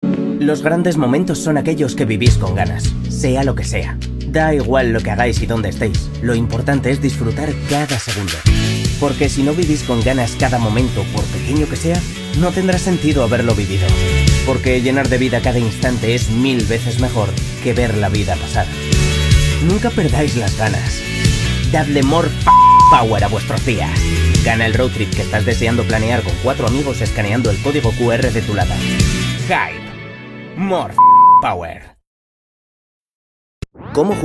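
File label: comercial, Spanish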